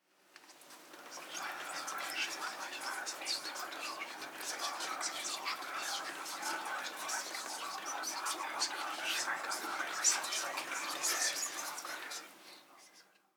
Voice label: whisper german neutral short

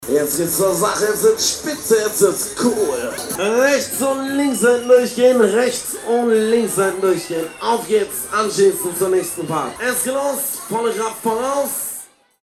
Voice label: octoberfest animateur

